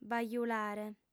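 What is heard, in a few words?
"bajulare", female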